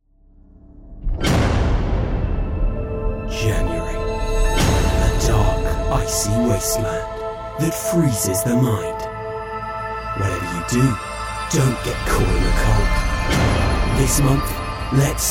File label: TV trailer